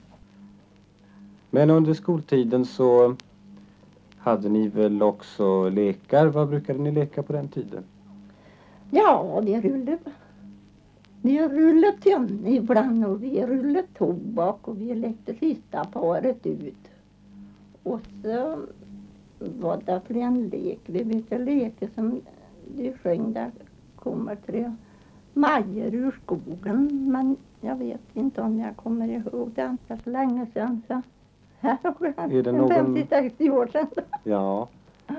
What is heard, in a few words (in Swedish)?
Intervju; om lekar